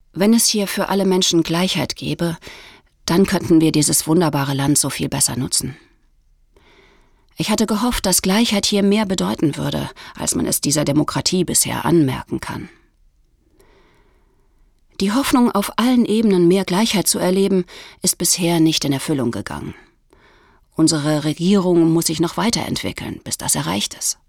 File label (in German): Erzaehlung